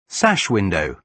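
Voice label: "sash window", British English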